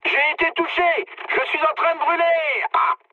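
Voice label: on fire, mood medium, French; War Thunder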